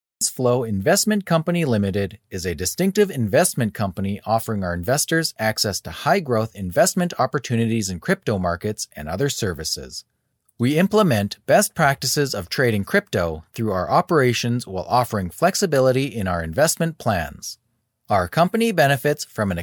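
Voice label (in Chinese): 外籍男英_外语_美式英语_公司介绍类_自然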